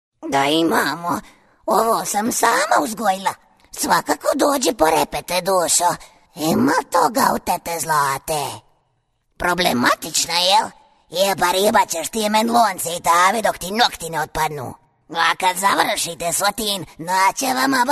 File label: cartoon oldwoman, croatian